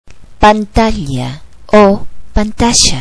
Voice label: "screen", spanish computer